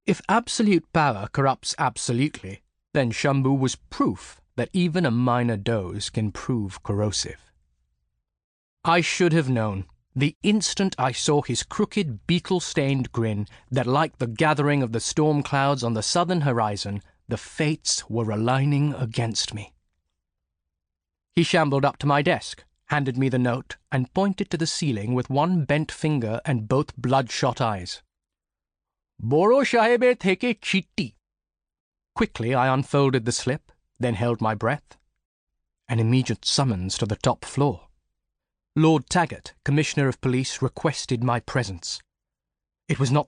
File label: Bookread